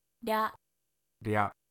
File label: "rya", Japanese